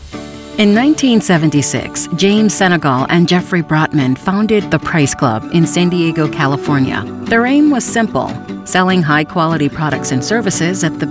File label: Television Spots, Real Person Conversational, Female Voice; COSTCO